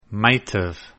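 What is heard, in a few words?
"might've", English contraction; Contractions Pronunciation Practice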